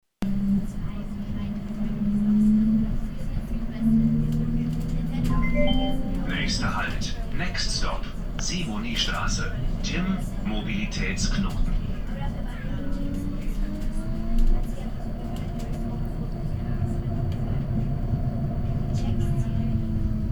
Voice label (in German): Ansage Strassenbahn; Linie 2